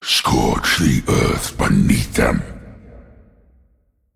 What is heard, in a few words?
Male Demon